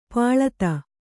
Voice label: "ಪಳಿತ", kannada